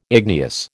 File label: "igneous", American